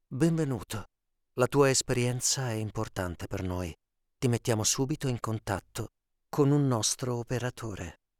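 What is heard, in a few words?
italian interactive voice response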